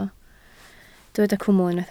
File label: "cwmwl", Welsh